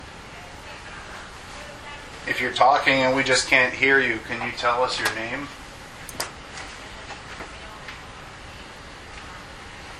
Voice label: Kitchen EVP; private residence freedom road apartment investigation return

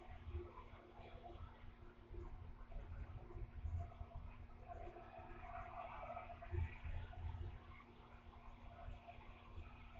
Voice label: Laundry EVP

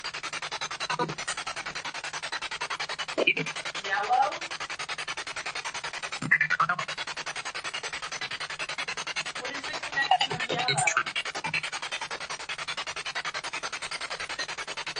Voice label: Party Room Spirit Box; the brewerie at union station investigation

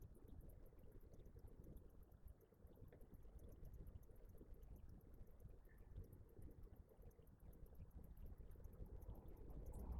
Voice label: Lincoln Room EVP; judson house investigation

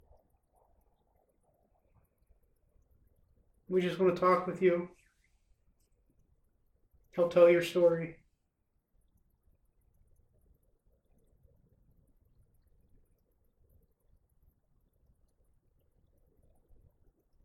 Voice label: Lincoln Room EVP; judson house investigation

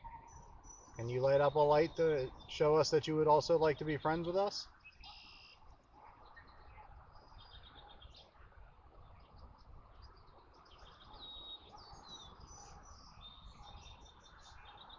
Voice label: Burial Stone EVP

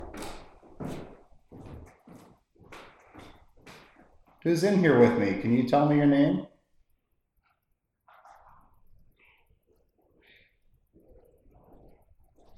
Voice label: Servants Quarters EVP

